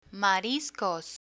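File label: "mariscos", Spanish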